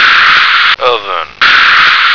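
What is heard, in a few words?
"oven", American English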